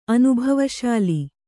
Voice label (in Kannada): "ಅನುಭವಶೀಲ", Kannada